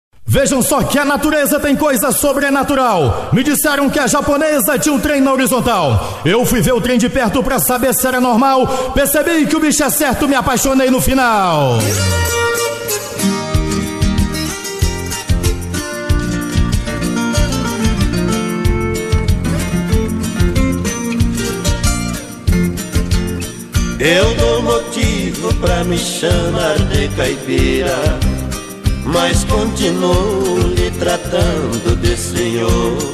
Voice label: locutor verso

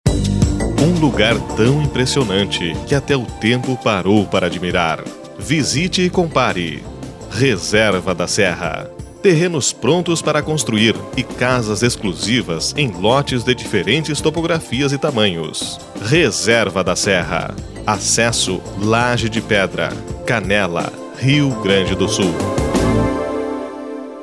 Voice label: spot; reserva da serra

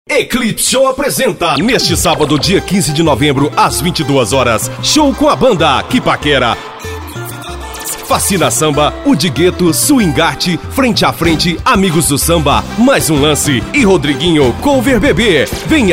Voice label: spot para radio

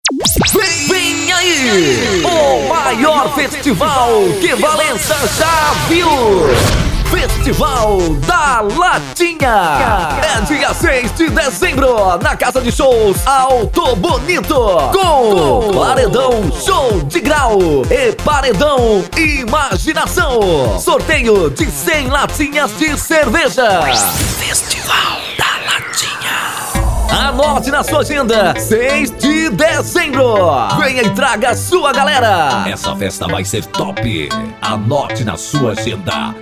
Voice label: spot

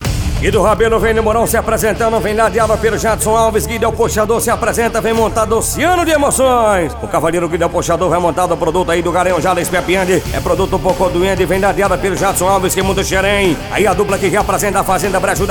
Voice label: Locutor

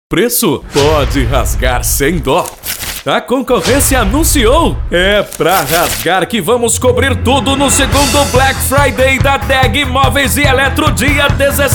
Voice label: spot

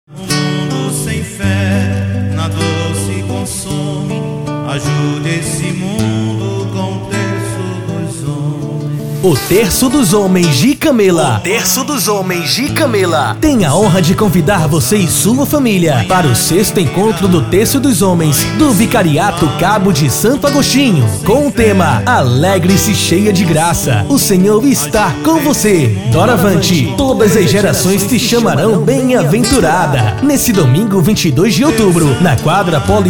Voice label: locutor locuções

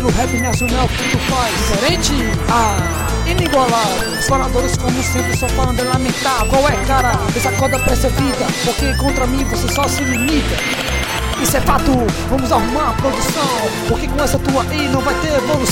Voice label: dialeto rimando pro crescimento

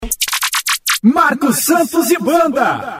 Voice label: vinheta

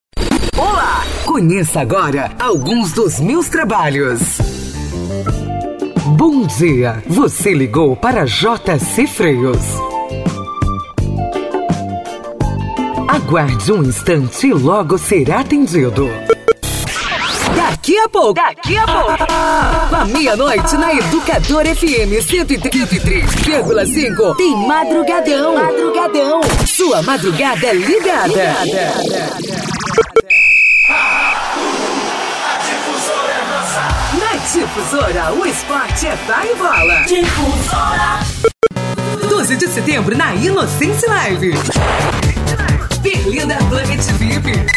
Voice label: demo locutora

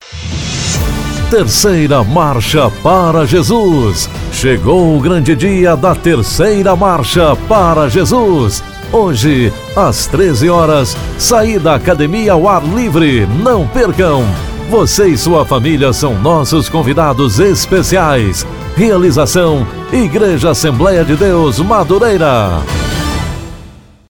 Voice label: VOZ IMPACTO GRAVE vinheta marcha para jesus; vinhetas spots chamadas comerciais propagandas anuncios

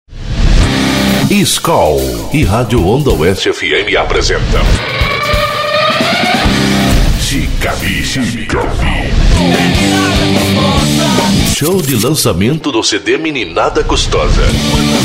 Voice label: locutor

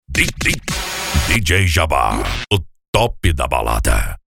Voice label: vinheta dj o top da balada

